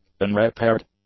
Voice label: "unrepaired", english; adjectives